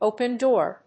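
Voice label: "open door", English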